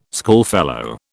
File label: "schoolfellow", British